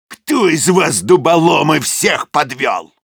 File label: jeers ru; "Кто из вас, дуболомы, всех подвел?"; Soldier